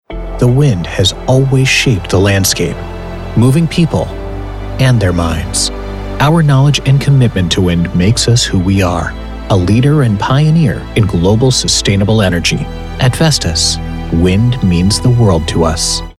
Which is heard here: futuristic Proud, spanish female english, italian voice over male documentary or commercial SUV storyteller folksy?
futuristic Proud